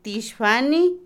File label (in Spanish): Otomí, mujer; ebrio; Cuerpo; INALI